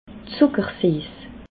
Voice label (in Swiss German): "zuckersiess", alsace